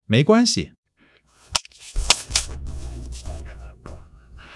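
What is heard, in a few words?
"没关系", Chinese; HSK1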